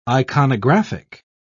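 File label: "iconographic", British English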